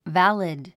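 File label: "valid", English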